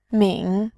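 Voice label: "min", Mandarin, tone 3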